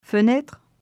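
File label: "Fenêtre", French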